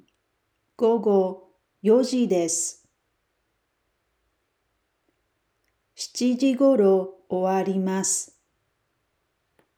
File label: japanese Dialogue; 7.0 Expanding Your Japanese Toolkit (2)